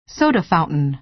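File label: "soda fountain", American English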